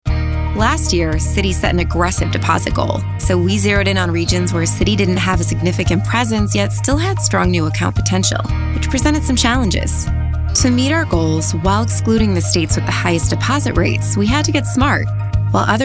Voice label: narration